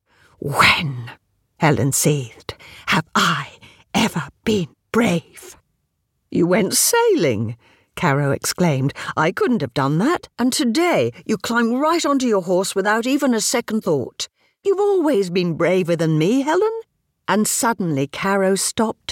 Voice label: audiobooks, Warm Mature Voiceover